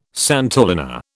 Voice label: "santolina", British

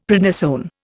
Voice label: "prednisone", Indian; Dictionary

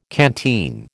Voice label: "canteen", American

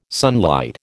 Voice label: "sunlight", American

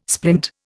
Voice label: "splint", Indian

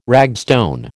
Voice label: "ragstone", American

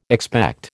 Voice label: "expect", American

